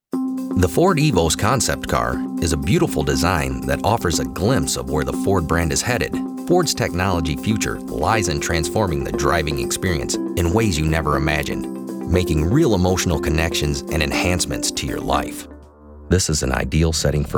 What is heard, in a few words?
Narration